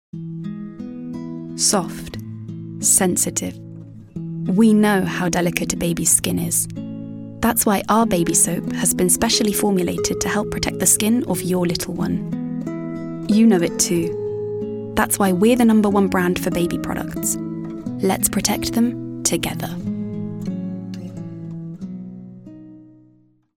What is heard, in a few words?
Commercial, UK; GoLocalise